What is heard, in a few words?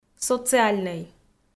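"социальнӧй", Komi, female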